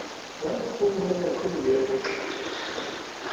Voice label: Male Sing Song Voice